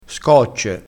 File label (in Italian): "scocce", crespinese